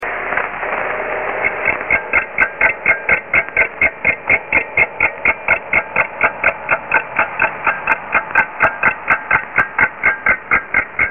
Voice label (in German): Norddeich Radio Wetterbericht